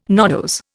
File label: "nodose", Indian